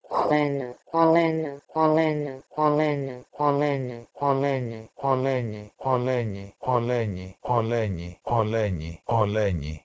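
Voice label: Proto-Indo-European Heh3leneh2 to Modern Greek oleni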